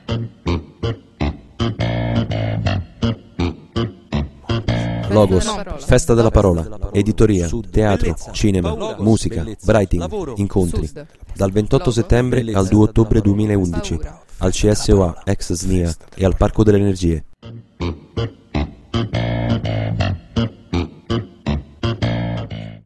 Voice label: spot; snia logos

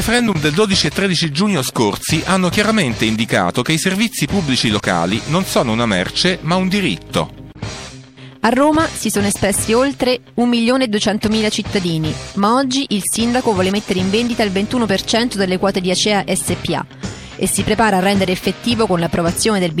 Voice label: spot manif acqua

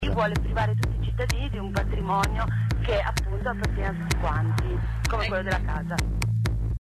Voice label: spot casa

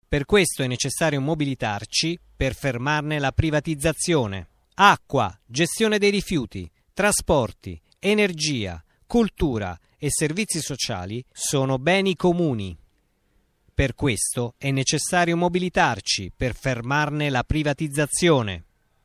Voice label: spot acqua voce

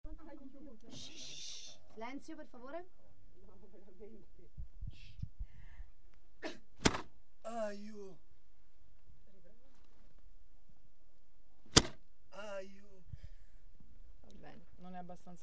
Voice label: spot; tonfo

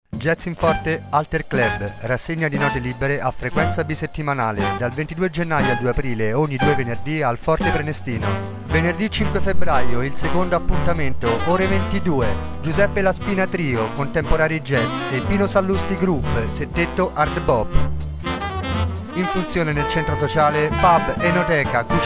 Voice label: spot; jazz in forte

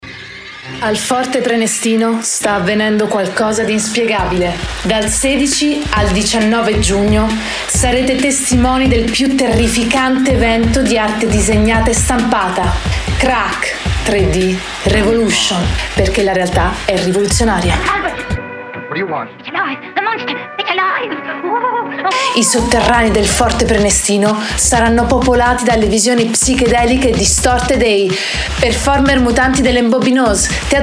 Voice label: spot; Crack

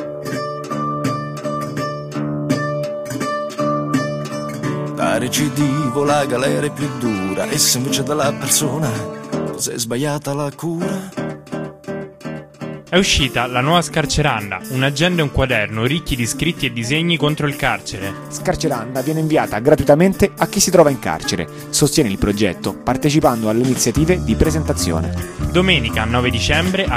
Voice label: spot; cene scarceranda

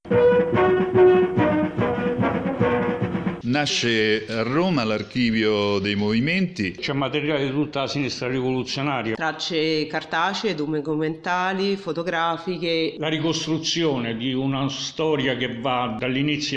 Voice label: spot; archivio movimenti

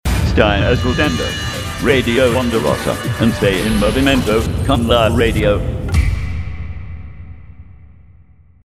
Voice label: ror jingle - in movimento con la redio

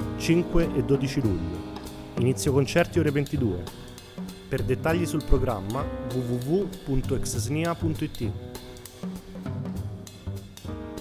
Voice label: Spot Jazz Al Popolo

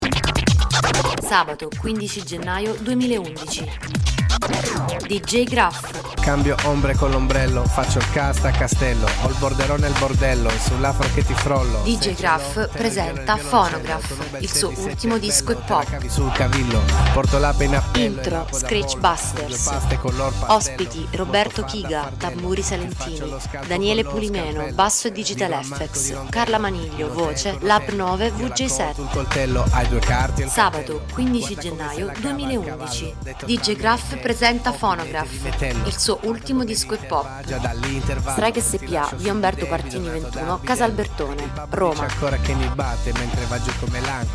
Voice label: spot

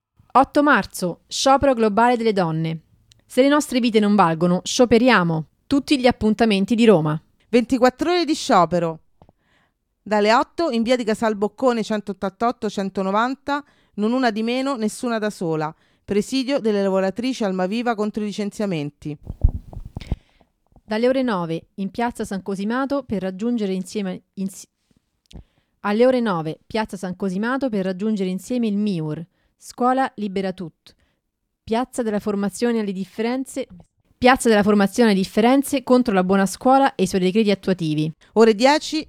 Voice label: spot voci piazze; 8 marzo

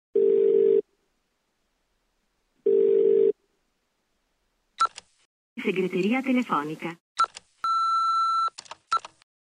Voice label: segreteria telefonica

